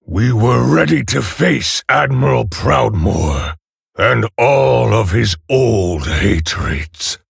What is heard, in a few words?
Male Orc Ogre; Pre Mission7